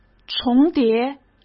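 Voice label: "重叠", Mandarin Chinese; hsk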